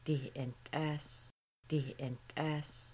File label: She is frying chicken, Kaska; Making Bannock